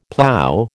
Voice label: "plow", American